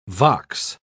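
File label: "vox", English word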